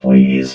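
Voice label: "please", robot voice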